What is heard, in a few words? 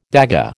"dagga", American